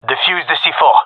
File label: cover defuse, gendermerie male